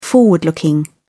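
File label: "forward looking", English, British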